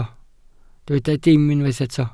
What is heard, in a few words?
"dim", Welsh